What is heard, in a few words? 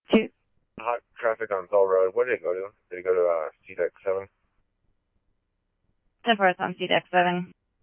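scanner, Phoenix Police Department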